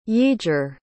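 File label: "yaeger", English word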